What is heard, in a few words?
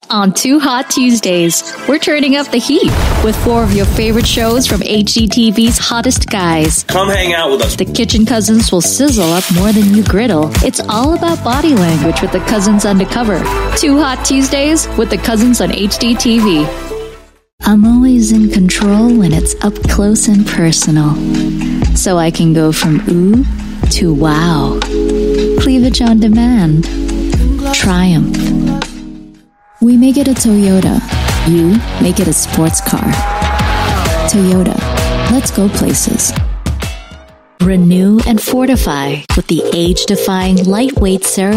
Asian English Commercial